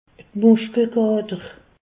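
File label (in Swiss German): "muschkelkater", Alsace, Haut Rhin; medecine; courbatures myalgies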